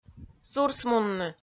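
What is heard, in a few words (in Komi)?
"сурсмунны", Komi, female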